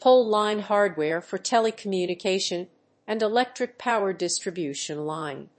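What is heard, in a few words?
"pole line hardware for tele-communication and electric power distribution line", English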